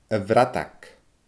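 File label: "a bhradag", Scottish Gaelic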